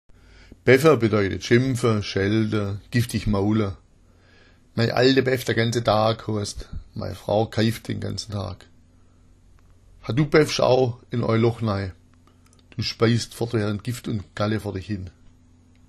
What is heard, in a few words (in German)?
baeffa, schwaebisch